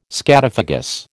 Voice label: "scatophagous", American